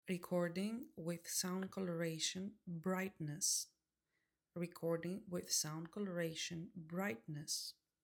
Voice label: Sound Coloration Brightness, wireless lavalier microphone; maono ai wave t5